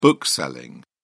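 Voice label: "bookselling", British English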